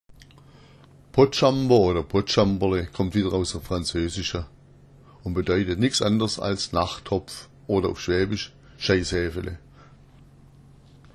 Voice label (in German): potschambr, schwaebisch; Botschambr; bedeutet nichts anderes als Nachttopf.